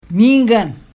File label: "mhiingan" pronunciation; Aadsookaan related words